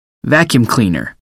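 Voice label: "vacuum cleaner", English word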